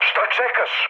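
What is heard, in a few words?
Serbian attack position mood med, speech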